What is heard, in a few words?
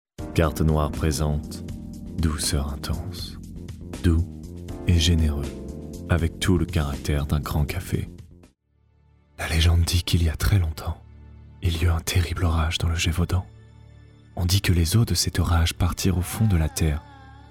french commercial